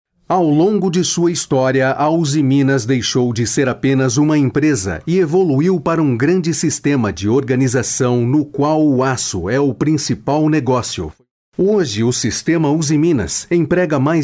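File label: Brazilian Portuguese corporate; male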